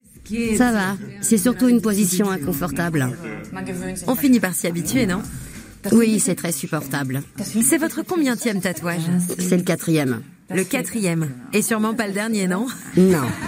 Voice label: voice over; tatoo

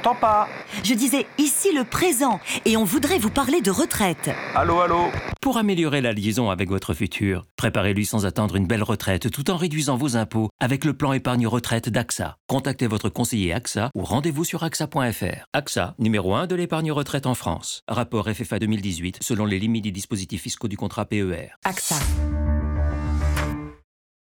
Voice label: Interview Podcast Jingle; AXA Epargne Retraite

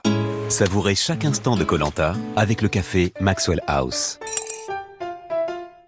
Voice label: Billboard; Maxwell House

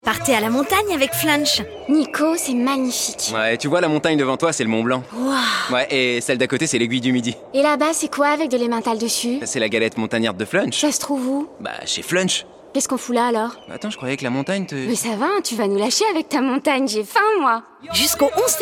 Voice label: RADIO; FLUNCH; LES SOMMETS

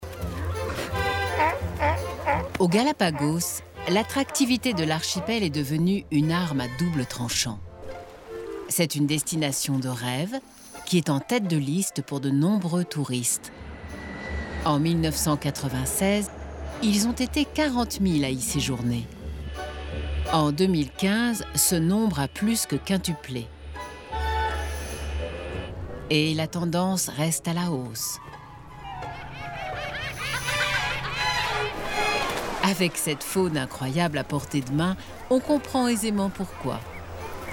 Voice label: Narration; Les Galapagos

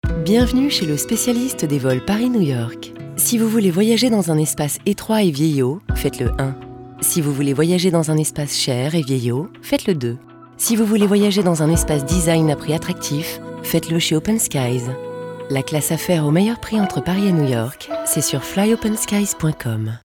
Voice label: RADIO SPOT; OPEN SKIES

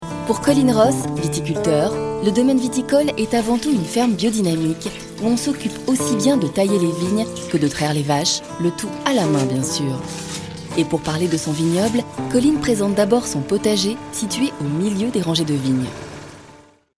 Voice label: narration; NewZealand

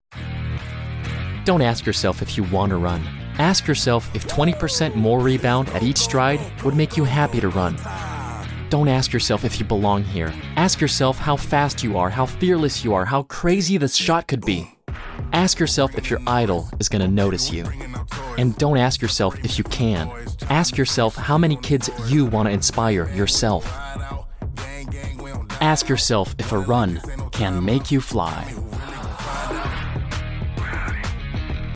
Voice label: Pub US; Puma

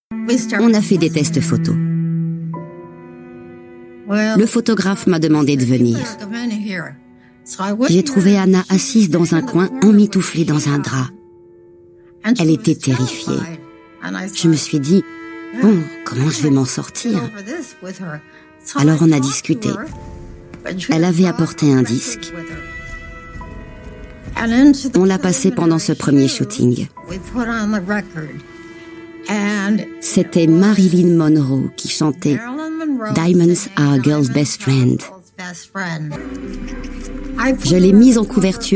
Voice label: VOICE OVER; ANNA NICOLE SMITH

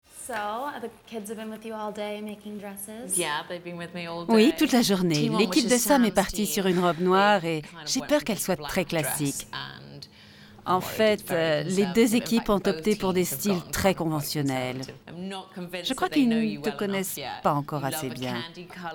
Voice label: Voice Over

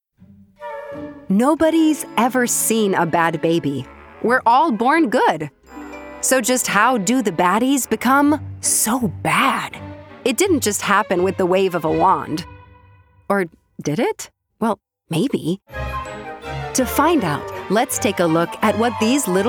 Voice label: kids podcast

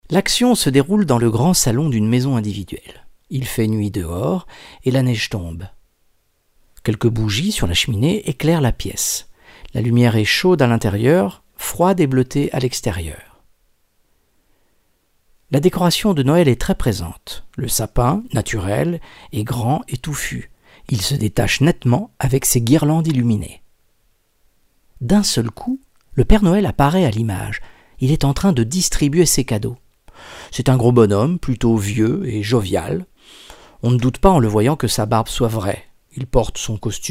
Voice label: Narrative; Pere Noel

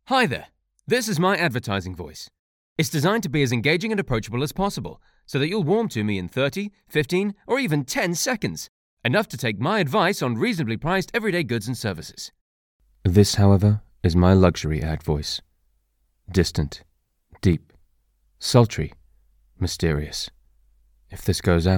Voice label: VO Totally Serious Genre Medley

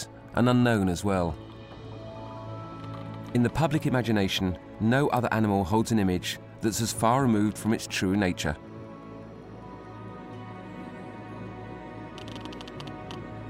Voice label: Narration Sperm Whale

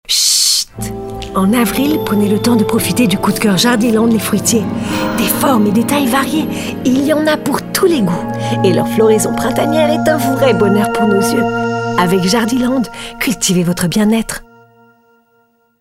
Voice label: impro; jardiland

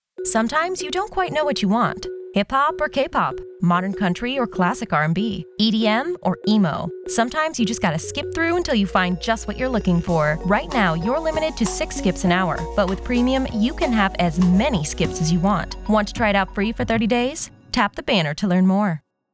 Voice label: Pub Direct Informational; Spotify

